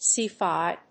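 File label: "sci-fi", English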